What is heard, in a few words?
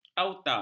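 "auta", Malay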